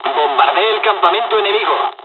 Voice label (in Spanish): dialogs spanish; War Thunder